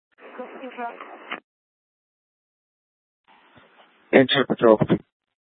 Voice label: Court Security Dispatch, scanner; Maricopa County (P25) - Thompson Peak